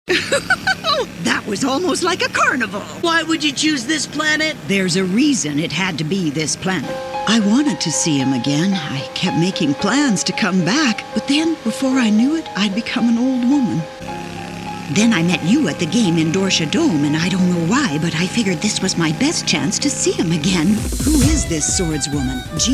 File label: animation